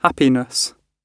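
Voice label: "happiness" British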